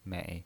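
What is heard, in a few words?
"mei", pinyin voice, Mandarin Chinese, third tone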